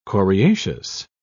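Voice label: "coriaceous", British English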